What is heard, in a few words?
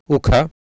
"ukha", Sanskrit